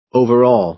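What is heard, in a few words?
"overall", American English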